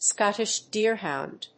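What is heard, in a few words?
"scottish deerhound", English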